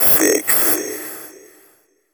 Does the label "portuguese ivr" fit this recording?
No